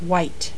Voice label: "white", colors; VOICES